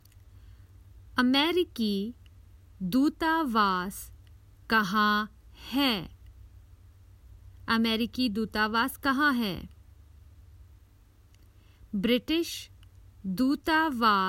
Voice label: Where is the American British Australian Canadian Emb, Common Hindi Phrases for travel